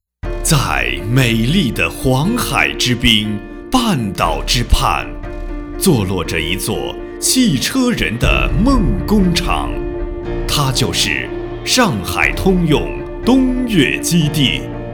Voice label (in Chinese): 汽车广告 大气; 上海东越